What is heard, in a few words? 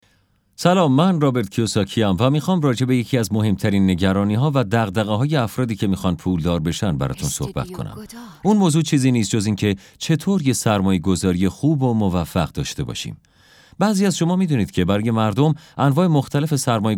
audiobook